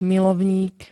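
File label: "milovník", Slovak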